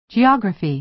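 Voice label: "geography", American English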